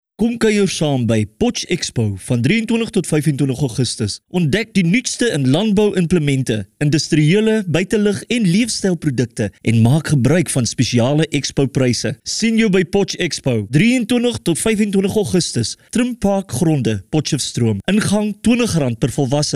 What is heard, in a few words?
AFR VO; POTCHEXPO